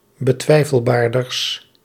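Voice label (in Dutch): "betwijfelbaarders", Dutch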